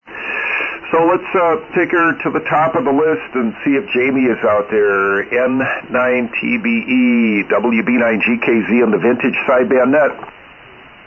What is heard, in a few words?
Swan 240 D-104 Mic; Forestville, Wi.